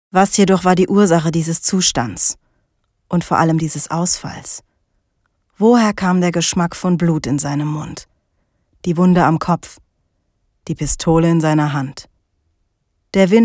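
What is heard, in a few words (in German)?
hörbuch thriller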